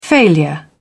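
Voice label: "failure", British English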